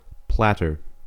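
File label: "platter", American English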